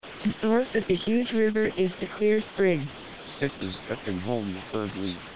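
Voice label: noisy English speech at SNR = 10 dB processed by vocoders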